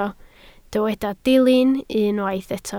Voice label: "dilyn" Welsh

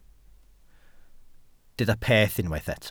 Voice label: "peth" Welsh

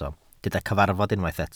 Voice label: "cyfarfod" Welsh

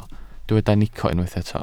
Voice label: "nico", Welsh

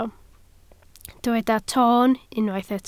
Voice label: "toon" Welsh

